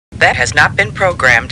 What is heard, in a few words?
Computer Voice; Star Trek